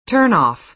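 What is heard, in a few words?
"turn-off", American English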